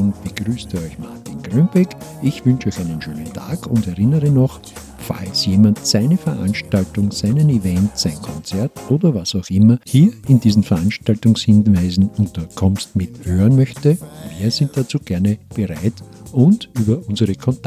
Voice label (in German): Hinweis fuer Werbung